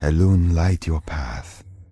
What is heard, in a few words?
Night Elf Male Standard Farewell